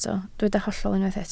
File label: "hollol", Welsh